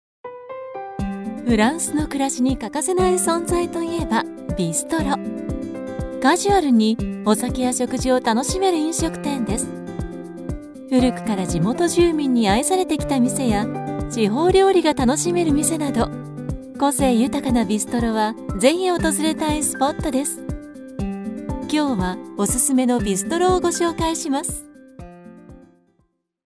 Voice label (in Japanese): akarui